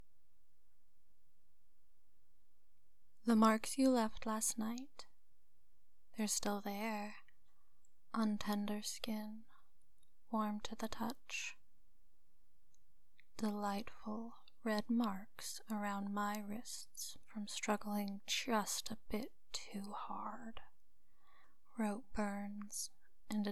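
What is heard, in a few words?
[A4A] [Erotic poetry][Sensual][Reflective]; Marks; Pain play; Submission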